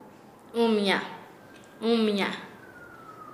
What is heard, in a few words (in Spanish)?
"tejer", Nasa Yuwe; paez